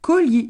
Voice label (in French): "cogli", Gravona; sud